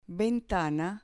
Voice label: "ventana", Spanish